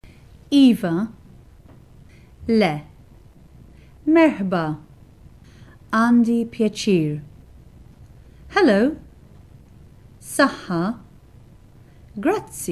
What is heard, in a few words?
Maltese